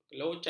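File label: "kelocak", Malay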